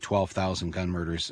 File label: twelve thousand gun murders, AE; twɛʊvθaʊzngənmɚdɚs